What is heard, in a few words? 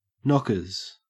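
"knockers", Australian English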